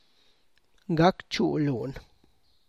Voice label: "gal gyet", build the granary, Tlacochahuaya Zapotec